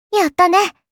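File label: "Yatta", Japanese, kawaii voice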